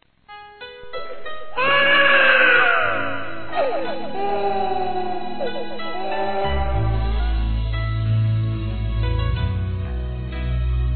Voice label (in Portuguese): Parabéns Mamãe Feminino; Telemensagens; Felicitações; Telemensagens 2017